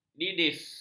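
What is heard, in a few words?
"didis", Malay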